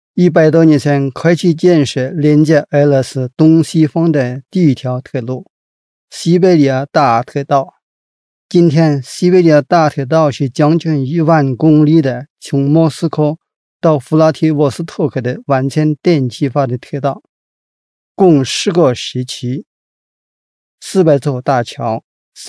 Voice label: chinese male

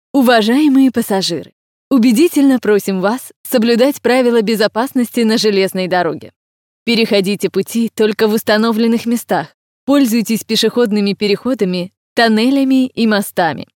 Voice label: rus

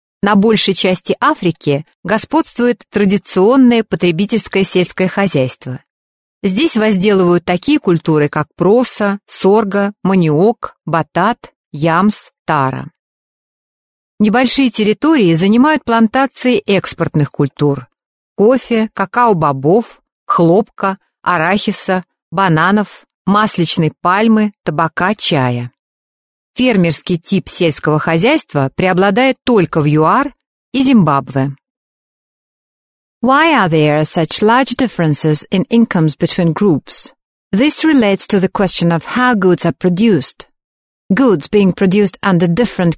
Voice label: rus uk

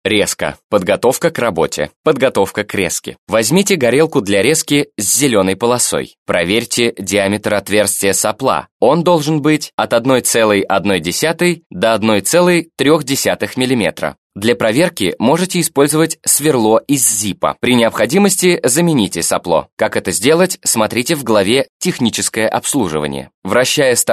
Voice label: russian male

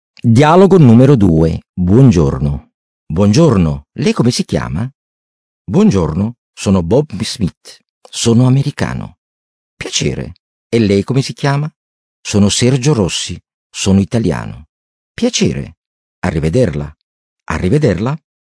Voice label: italian male

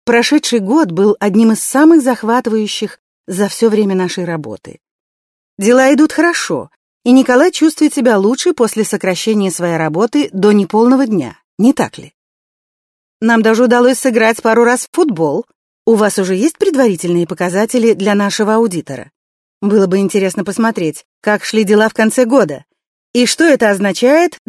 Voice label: russian female

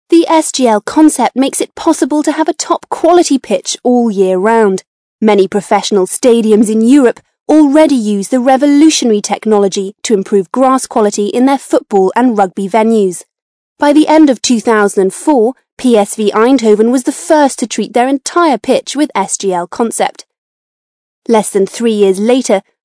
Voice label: british english female

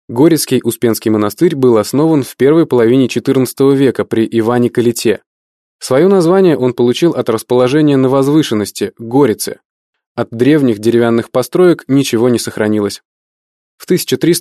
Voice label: russian male

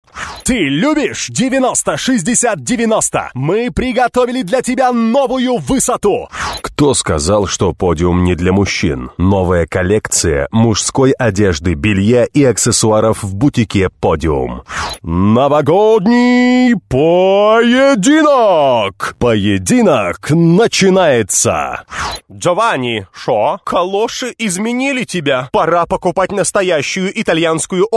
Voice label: rus

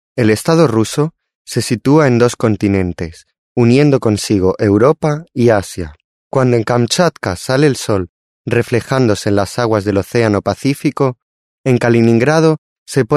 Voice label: spanish male

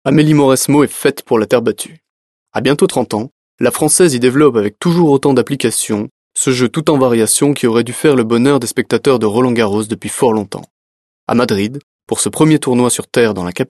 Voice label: french male

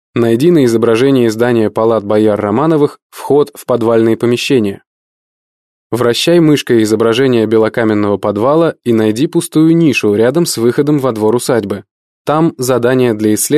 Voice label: russian male

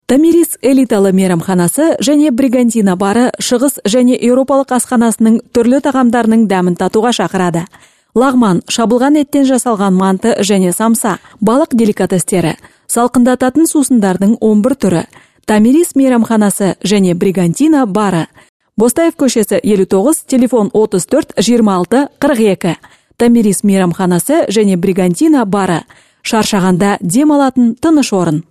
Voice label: kazakh female

